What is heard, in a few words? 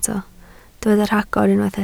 "rhagor", Welsh